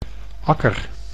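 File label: "akker", Dutch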